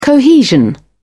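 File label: "cohesion", British English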